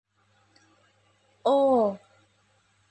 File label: Suara O, Aksara Swara, Basa Jawa